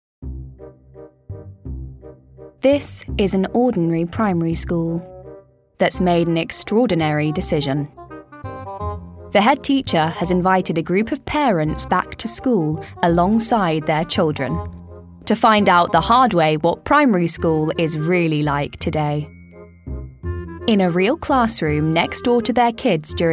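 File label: English UK, documentary; GoLocalise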